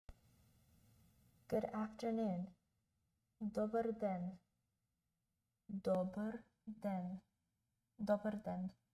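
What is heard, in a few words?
Good afternoon, Bulgarian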